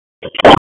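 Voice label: Police Spec Ops Tac, radio; Wake; p25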